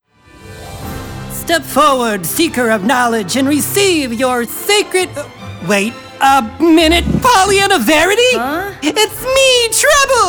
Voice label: Character, Male Voice Over Talent - Light-hearted, Heavy-duty VO